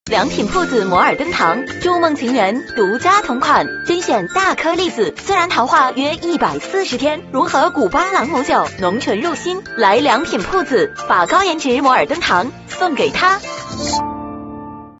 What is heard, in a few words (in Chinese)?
广告 食品饮料 活力; 良品铺子